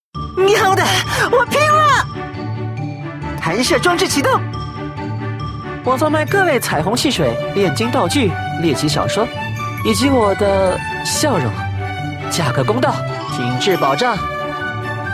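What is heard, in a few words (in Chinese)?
male, shaonian (boy)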